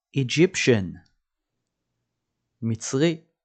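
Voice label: "Egyptian" English, "מצרי" Hebrew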